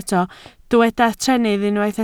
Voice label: "trennydd", Welsh

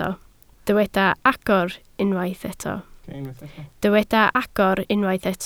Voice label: "agor", Welsh

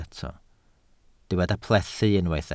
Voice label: "plethu", Welsh

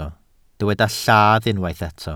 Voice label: "lladd", welsh

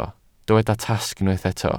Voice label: "tasg", Welsh

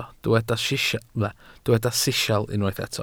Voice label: "sisial", welsh

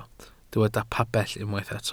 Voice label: "pabell", Welsh

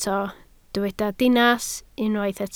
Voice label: "dinas", Welsh

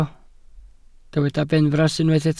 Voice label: "benfras", Welsh

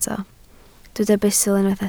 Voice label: "bustl", Welsh

